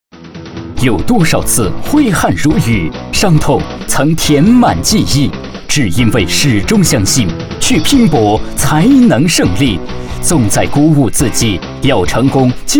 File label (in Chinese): 男, 大气激情, 励志解说